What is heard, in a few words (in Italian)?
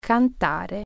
"sing", Italian